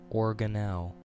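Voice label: "organelle", American English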